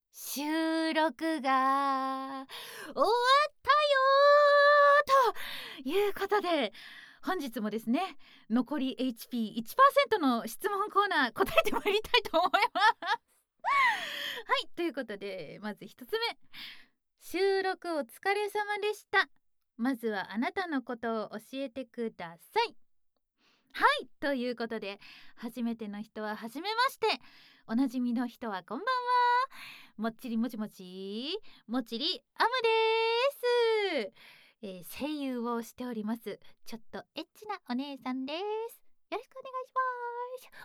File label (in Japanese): フリートーク